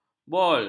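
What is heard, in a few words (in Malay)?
"bol", Malay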